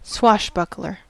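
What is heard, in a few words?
"swashbuckler", American English; pronunciations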